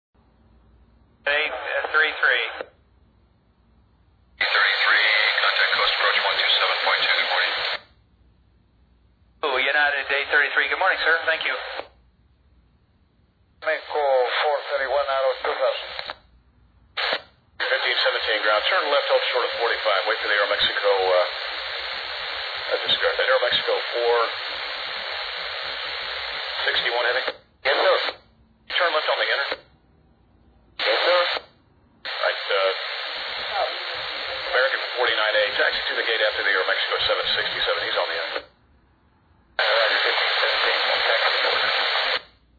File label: chatter; co podrush